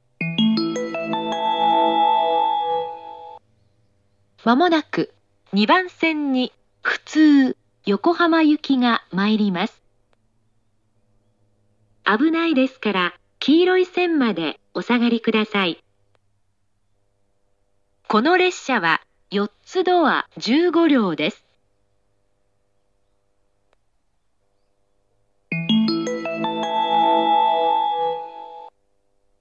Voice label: 接近放送

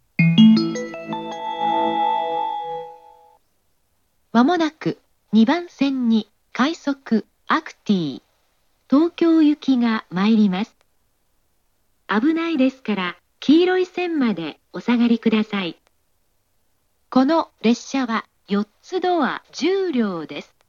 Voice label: 接近放送, 自動放送, 女性; 快速アクティー 東京行（4ドア10両）の接近放送です。; 東海道線; ATOS（旧型）; 小田原・横浜・東京方面

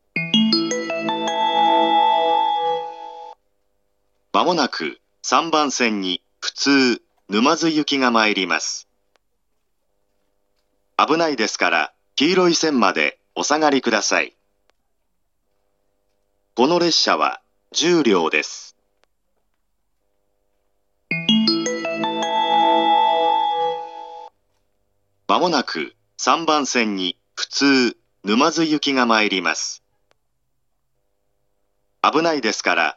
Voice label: 接近放送, 自動放送; 東海道線; 熱海・伊東・沼津方面; ATOS; （宇都宮型）; 男性

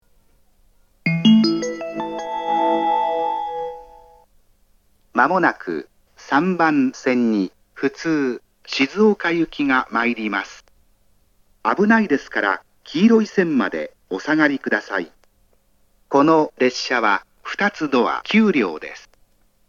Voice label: 接近放送, 自動放送, 男性; 東海道線; 熱海・伊東・沼津方面; ATOS（旧型）

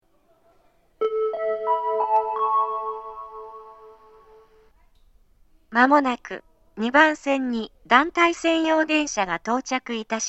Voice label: 接近放送 団体専用列車の接近放送です。, 合成音声型 (女性）; 言い回しがどこかおかしいです(笑)。

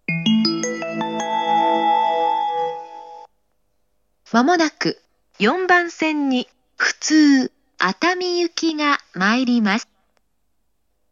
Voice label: 接近放送, 自動放送, 女性; 東海道線; 熱海・伊東・沼津方面; ATOS（新型）

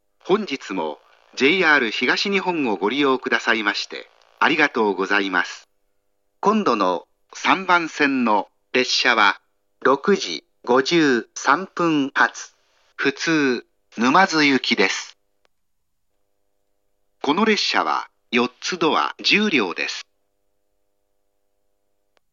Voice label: 次発放送, 自動放送, 男性; 6：53発 普通 沼津行（4ドア10両）の自動放送です。; 東海道線; 熱海・伊東・沼津方面; ATOS（新型）; 元日のみ着発線変更がかかり、3番線に入線します。

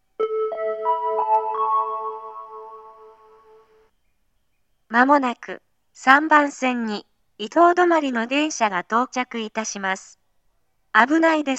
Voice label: 接近放送 折り返し 3両編成 普通 伊豆急下田行きの自動放送です。, 合成音声型 (女性）

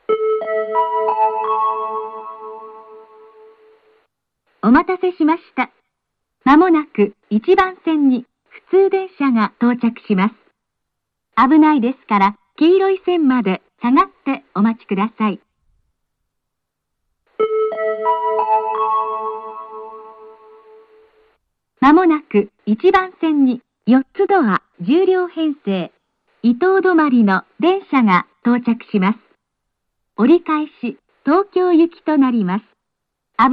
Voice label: 接近放送 伊東止まり 折り返し東京行（4ドア10両）の接近放送です。

